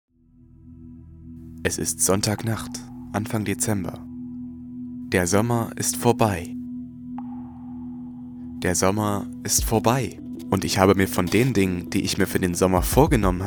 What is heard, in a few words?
GERMAN